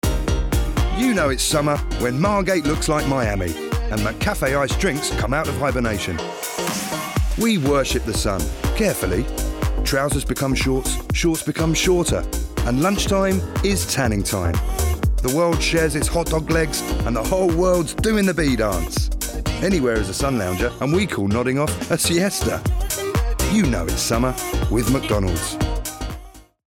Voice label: Relaxed, Cool, Upbeat; McDonalds

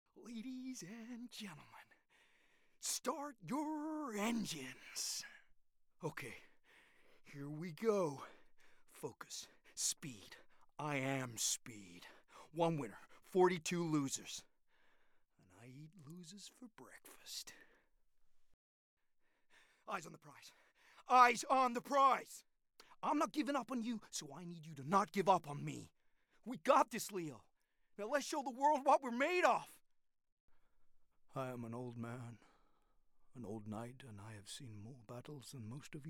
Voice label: Animation Gaming

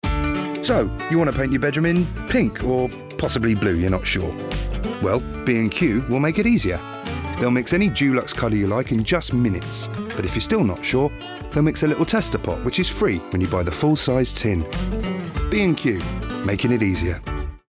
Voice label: Blokey, Light, Conversational; B and Q

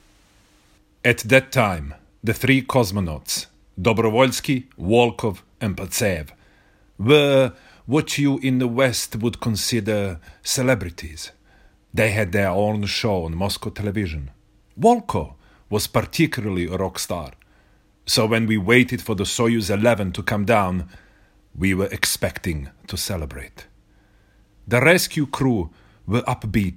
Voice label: Narrative Reel

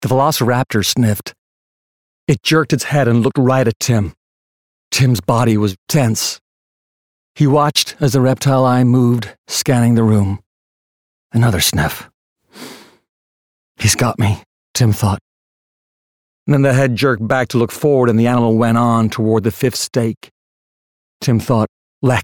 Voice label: US Accent; Jurassic Park

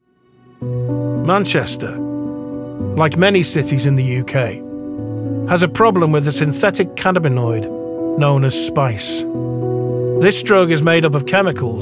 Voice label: Documentary

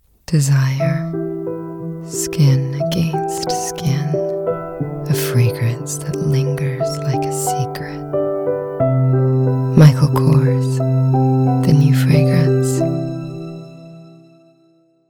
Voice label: with music; Micheal Kors

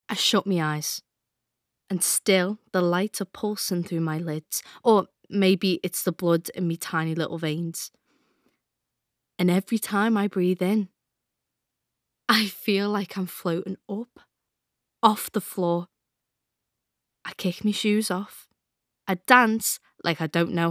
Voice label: Dramatic

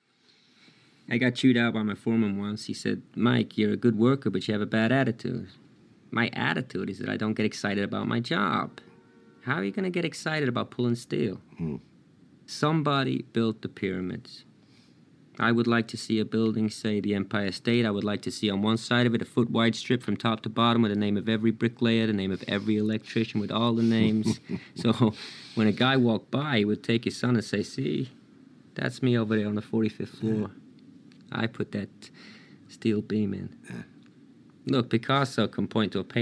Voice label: Chicago, US Chicago; Working (Radio 4)

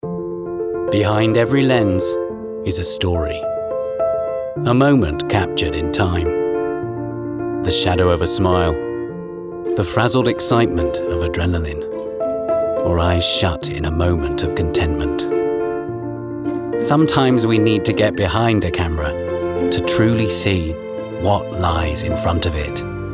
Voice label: Commercial - Cheerful, Animated, Quirky; Narration; Canon Commercial- Thoughtful, Trustworthy, Gravitas

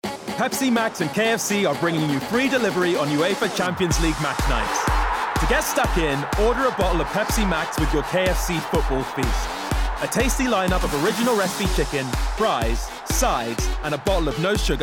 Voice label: Fresh, Relaxed, Clear; KFC

